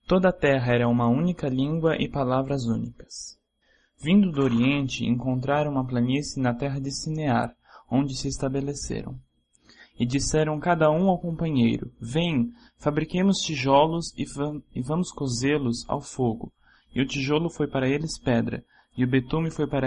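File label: Portuguese Brazil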